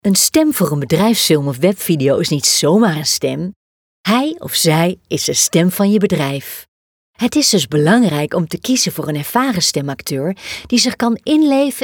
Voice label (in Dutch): BEDRIJFSFILM